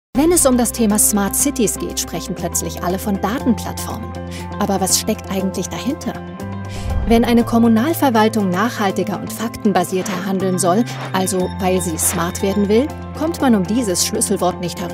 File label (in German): easy smartcities erklart; Sprecher in Berlin; Muttersprache Deutsch